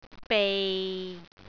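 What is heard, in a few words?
"bei6", Cantonese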